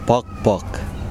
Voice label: sand, Kosraean